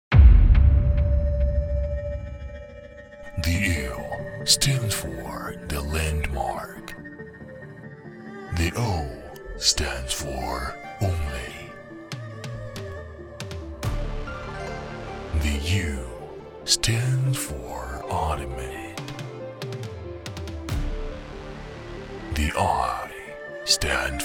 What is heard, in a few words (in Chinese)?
英语男; 音乐节变形金刚